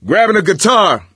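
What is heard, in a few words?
melee weapons, voice, male; Left 4 Dead 2; coach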